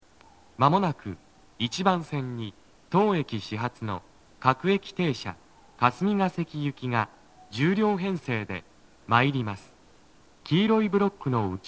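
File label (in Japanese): 北綾瀬 1番線 接近放送, 男声, 各駅停車 霞ケ関行 (10両編成), 待合室TOAでの収録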